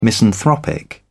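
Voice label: "misanthropic", British English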